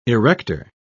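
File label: "erector", British English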